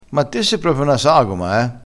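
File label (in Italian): "sagoma", vocabolario dialettale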